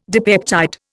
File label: "dipeptide", Indian